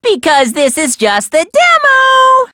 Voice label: English Demo Line; Danganronpa Trigger Happy Havoc; Monokuma

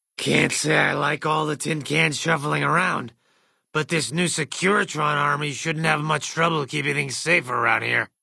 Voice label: male generic ghoul greeting; Fnv

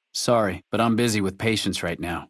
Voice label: dialogue greeting; FNV

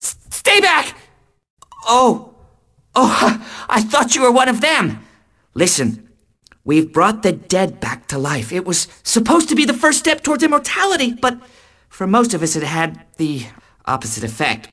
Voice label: tree scientist dialogue; Timesplitters 3; Mansion of Madness

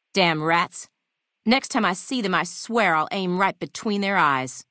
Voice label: female adult greeting; FNV

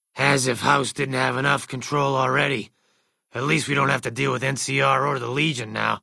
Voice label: male generic ghoul hello; Fnv

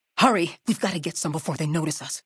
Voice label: female adult; FNV; take water

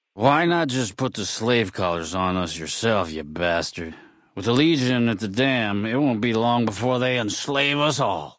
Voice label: male adult greeting

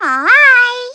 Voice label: Kirby Soft Hii; Smash 3DS

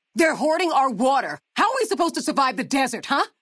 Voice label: female adult greeting

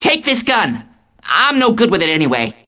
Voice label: tree scientist dialogue; Timesplitters 3; Mansion of Madness

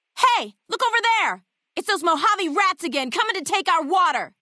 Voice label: female adult; FNV

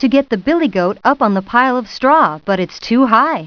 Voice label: too high story narr; Putt Time

